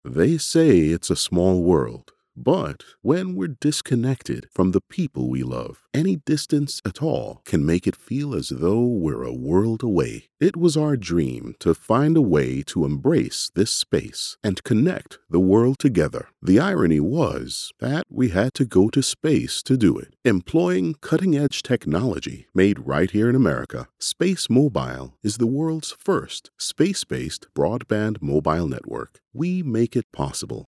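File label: English Canadian Male Conversational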